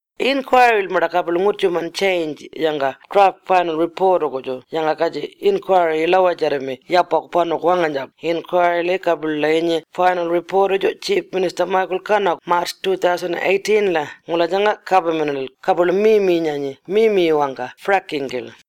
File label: Warlpiri; Fracking Draft Final Report